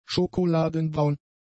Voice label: "schokoladenbraun", German; adjectives